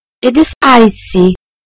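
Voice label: "icy", British English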